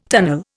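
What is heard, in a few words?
"tunnel", Indian English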